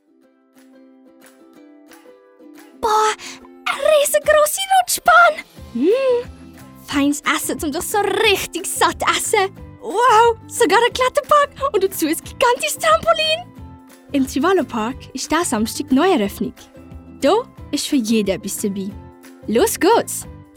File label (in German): Radiowerbung; Trivallo CHD